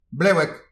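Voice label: "blevek", Breton; petit precis de prononciation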